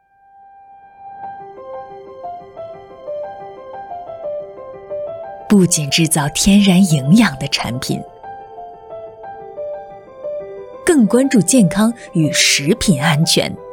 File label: Mandarin China Commercial Manifesto; Citrosuco